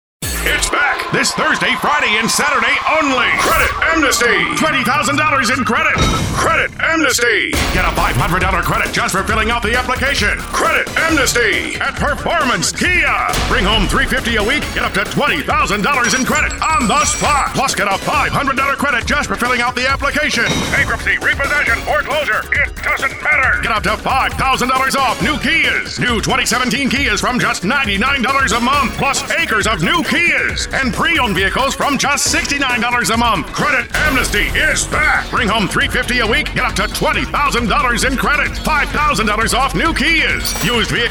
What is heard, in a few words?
Hard Sell, Car Dealers